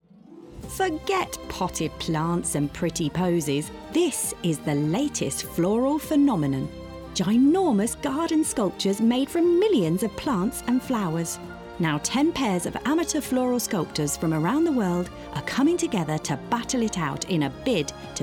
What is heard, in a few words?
Narra; Big Flower Fight